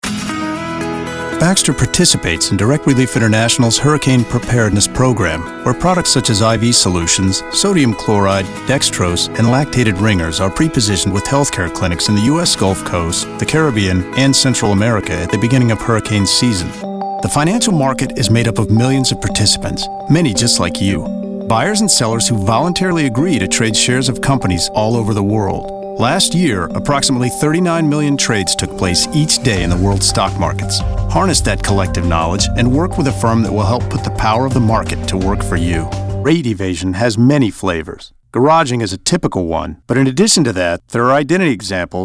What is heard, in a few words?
NARRATIVE